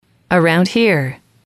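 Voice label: around Here, english, female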